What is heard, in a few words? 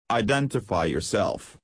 Normal Voice; Talking Darth Vader Helmet